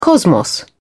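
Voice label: "cosmos", British English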